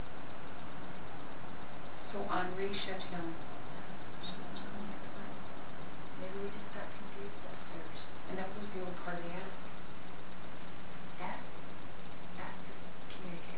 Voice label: female voice no